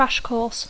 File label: "crash course", English; Dacco